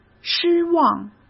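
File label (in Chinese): "失望", Mandarin; chinese flashcards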